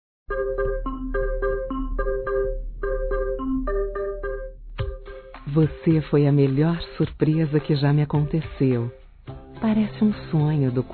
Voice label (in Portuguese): Romantica Sedução Quero Embriagar Feminino, Telemensagens